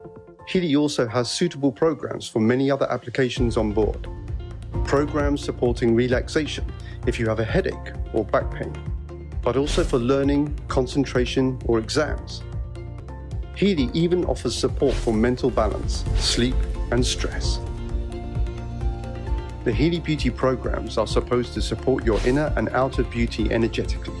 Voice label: Product explanation and promotion, Upbeat explanation educational product promo; HEALY